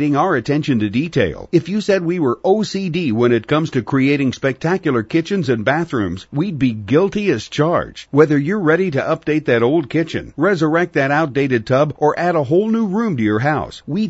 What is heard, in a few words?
Radio Ad Persnickety